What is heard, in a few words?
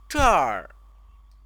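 "zher", Chinese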